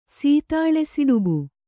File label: "ಸೀತಾಳಸಿಡುಬು", Kannada